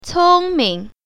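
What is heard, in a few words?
"cong1ming0", Mandarin Chinese Tone Pair Drills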